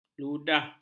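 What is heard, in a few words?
"ludah", Malay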